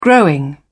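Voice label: "growing", British English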